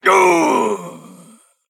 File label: Male NightElf Death